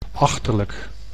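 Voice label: "achterlijk", Dutch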